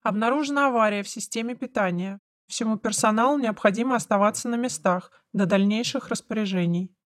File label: announcer lights out; ISB Special Forces